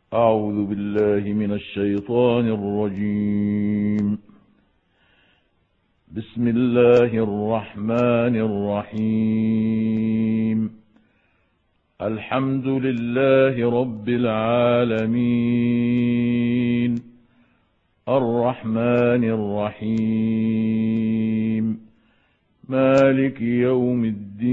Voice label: ترتیل سوره مبارکه فاتحة الکتاب; محمود عبدالحکم

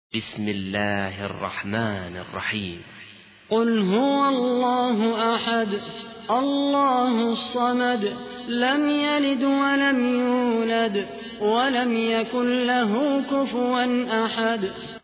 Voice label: ترتیل سوره اخلاص; خالد قحطانی

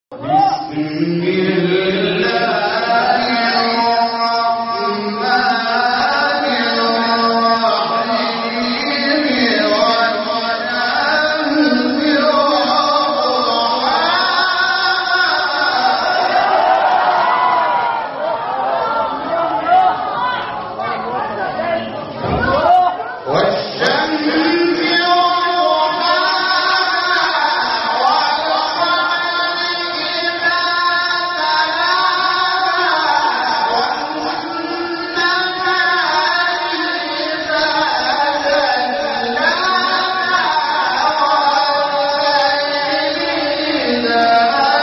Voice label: تلاوت سوره مبارکه شمس; انور شحات انور

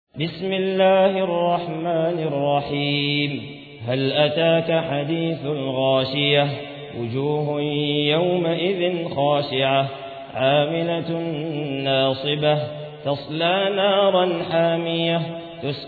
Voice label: ترتیل سوره غاشیه

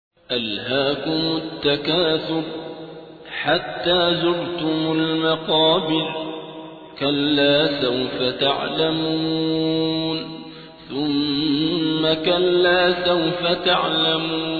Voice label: ترتیل سوره تکاثر; صابر عبدالحکم

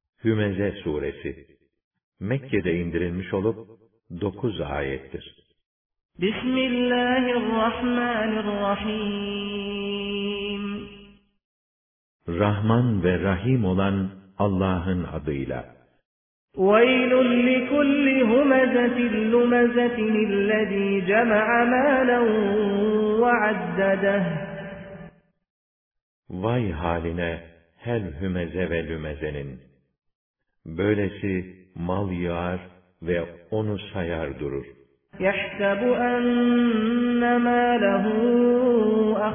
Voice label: ترجمه گویای قرآن کریم به زبان ترکی استانبولی; سوره همزه

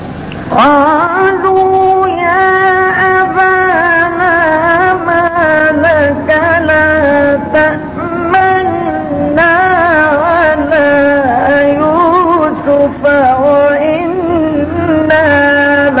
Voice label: تلاوت سوره یوسف; آیه 11 12; استاد مصطفی اسماعیل

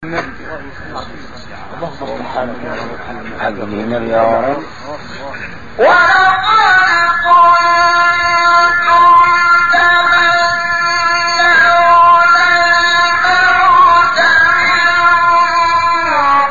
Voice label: تلاوت آیه 143 سوره آل عمران; استاد شحات انور

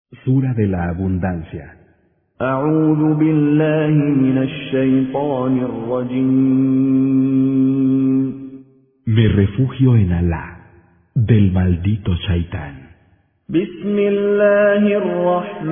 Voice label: ترجمه گویای قرآن به زبان اسپانیایی; با تلاوت مشاری العفاسی; سوره کوثر

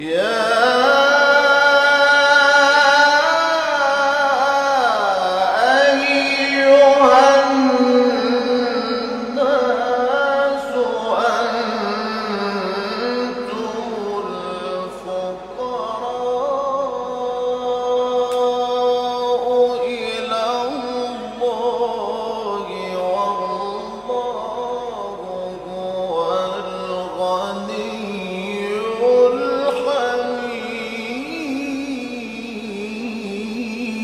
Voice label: تلاوت آیه 15 سوره فاطر; محمود شحات انور

